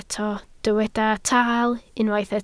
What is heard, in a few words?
"taal", Welsh